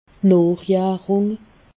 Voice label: "nochjarung", alsace

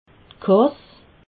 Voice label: "kass", alsace

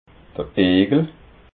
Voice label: "igel", alsace

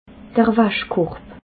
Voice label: "waschkorb", alsace

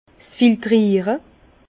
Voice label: "s filtriere", Bas Rhin; vin; filtrage

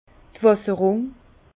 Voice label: "wasserrung", Bas Rhin; irrigation; vin

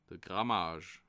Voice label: "grammage", alsace

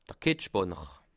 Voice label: "kett", alsace

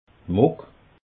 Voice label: "muck", alsace

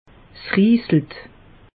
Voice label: "riesle", alsace; meteo

